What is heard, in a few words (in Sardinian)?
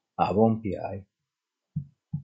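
"Abompiai", Sardinian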